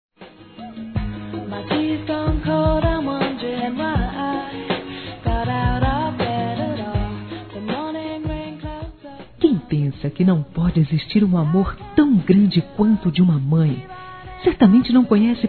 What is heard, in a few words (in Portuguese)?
MÃE PAI F; Telemensagens 2017